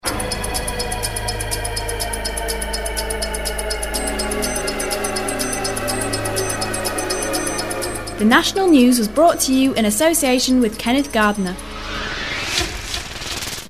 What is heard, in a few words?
ad - nat news, female sweep bed; bailrigg fm; bfm jingles ads RSL 1994